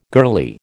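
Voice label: "girlie", American English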